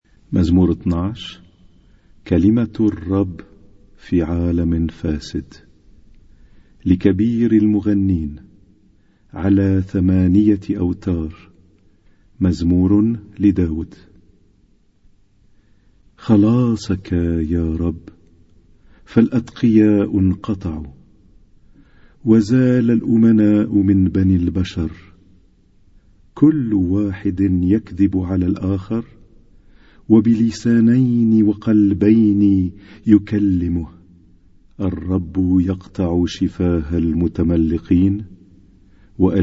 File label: Arabic Psalm Zaboor